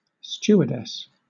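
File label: "stewardess", British English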